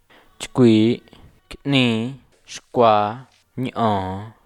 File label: rise and fall tone